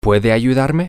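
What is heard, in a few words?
can you help me, spanish, male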